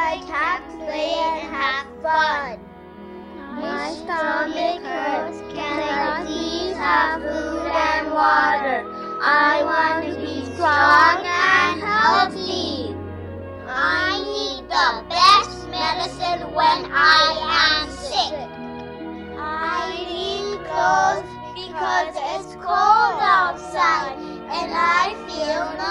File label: voice of a child